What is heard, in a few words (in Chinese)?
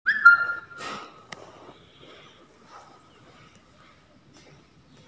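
"zi3 dan4" Chinese, female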